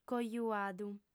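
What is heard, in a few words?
"cojuadu", female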